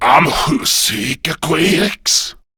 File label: Patrol, Male; Warframe; Grineer Male B; Battle Barks